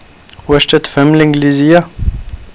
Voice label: english f